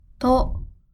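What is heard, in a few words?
"と", Japanese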